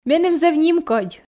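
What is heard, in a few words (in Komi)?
меным зэв нимкодь, Komi, female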